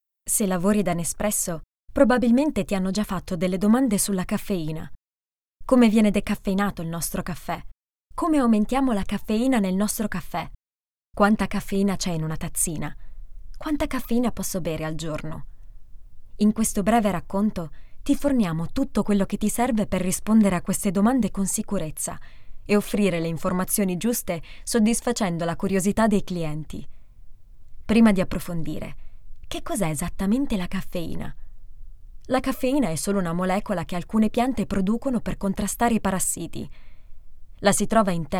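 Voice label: Italian female Elearning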